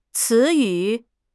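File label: "ci2 yu3", Mandarin Chinese; HSK4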